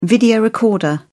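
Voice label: "video recorder", British English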